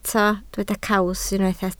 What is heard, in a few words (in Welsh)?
"caws", Welsh